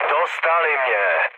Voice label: aircraft destroyed, speech cze, mood low